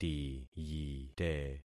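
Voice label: "tiyite", concatenated syllables; Affixing experiment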